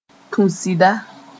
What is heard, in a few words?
"tũ sɩda", moore